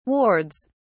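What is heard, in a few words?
"wards", English, British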